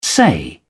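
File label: "say", English, British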